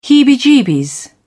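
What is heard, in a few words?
"heebie-jeebies", British English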